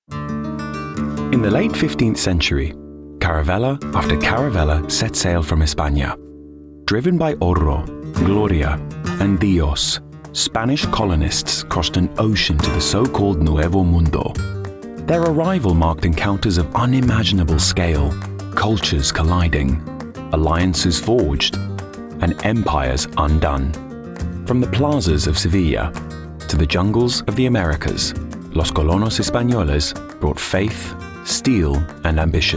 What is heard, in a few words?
documentary; SPANISH COLONISTS